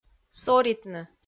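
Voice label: "соритны", Komi, female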